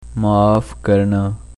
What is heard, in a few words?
sorry, urdu